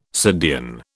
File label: "subdean", British